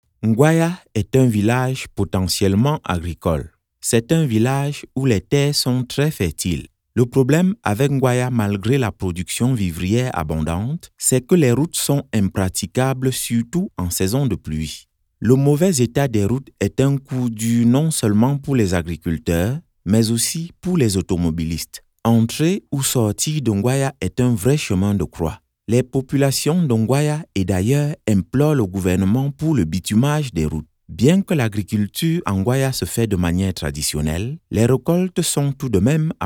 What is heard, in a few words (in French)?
documentaire